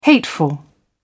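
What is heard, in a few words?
"hateful", British English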